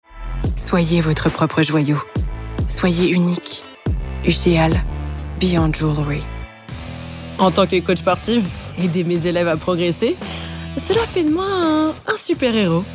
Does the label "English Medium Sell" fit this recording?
No